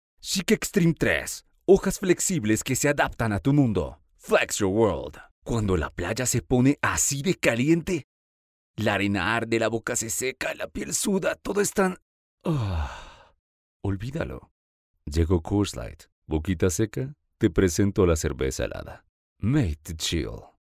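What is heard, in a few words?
Spanish Americas, male, voice over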